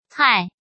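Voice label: "Hài", Chinese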